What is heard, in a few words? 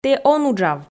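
"тэ он уджав", Komi, female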